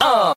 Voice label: "uh" group of men